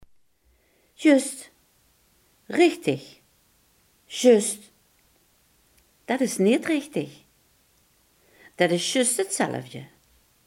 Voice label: "juist", Limburgs

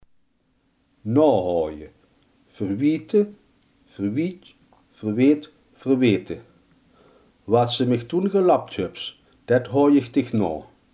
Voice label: "verwijten", limburgse

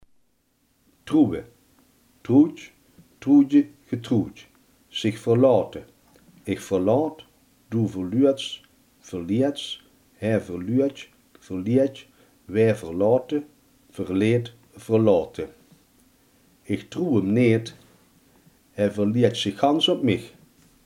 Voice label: "vertrouwen", limburgse

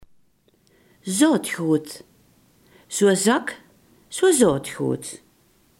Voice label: "zaadgoed", limburgse

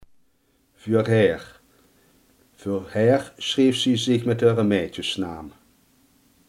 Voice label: "voorheen", limburgse

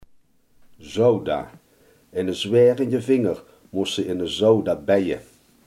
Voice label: "soda", Limburgs

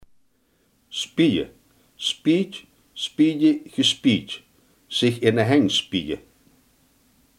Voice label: "spugen", Limburgs

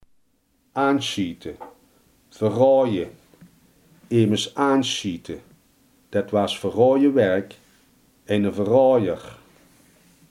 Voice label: "verraden", limburgse

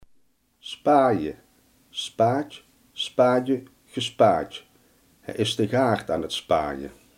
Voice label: "spitten", Limburgs

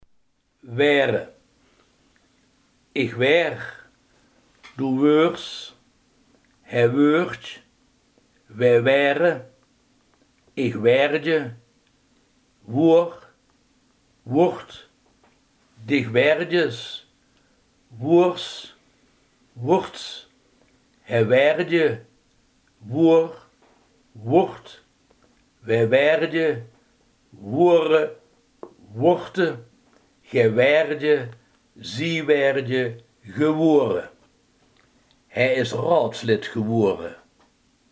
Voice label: "worden", limburgse

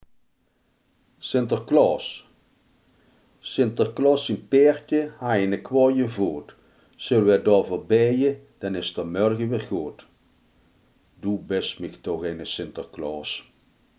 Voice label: "sinterklaas", Limburgs

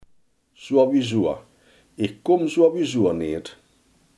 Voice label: "sowieso", Limburgs

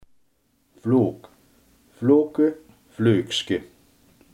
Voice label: "vloek", limburgse

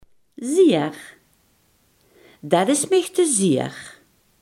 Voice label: "zeer", limburgse

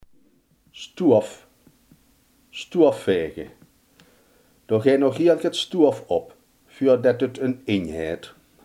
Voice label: "stof", Limburgs

